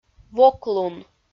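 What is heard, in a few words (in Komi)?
"воклун", Komi, female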